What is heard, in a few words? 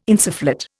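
"insufflate", Indian English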